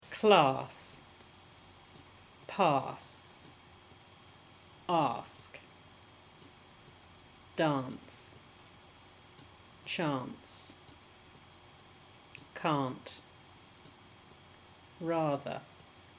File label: Surrey practice, dialect samples, England